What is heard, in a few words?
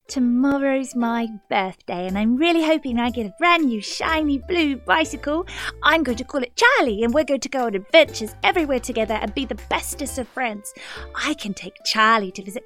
Young Girl